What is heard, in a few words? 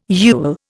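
"yule", Indian English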